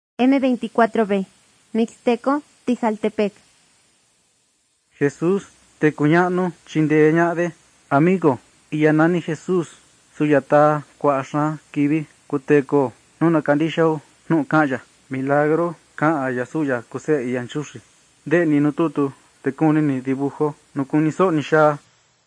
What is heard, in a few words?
mixtec diagnostic spanish mexico